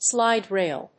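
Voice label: "slide-rail", English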